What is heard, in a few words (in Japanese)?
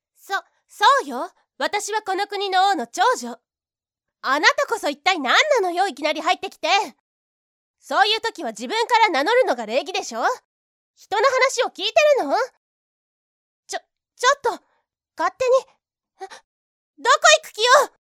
勝ち気な姫様